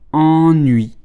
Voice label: "ennui", French

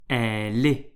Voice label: "elle est", French

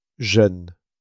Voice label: "jeune", French

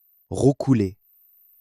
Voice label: "roucouler", French

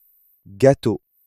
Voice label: "gâteau", French

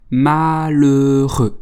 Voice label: "malheureux", French

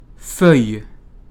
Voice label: "fouille", French

